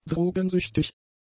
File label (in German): "drogensüchtig", German; adjectives